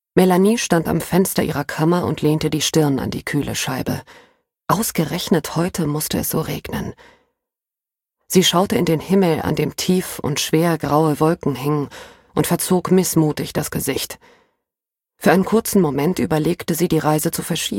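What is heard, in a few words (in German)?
Historischer Roman Paris Hörbuch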